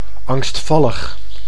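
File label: "angstvallig", Dutch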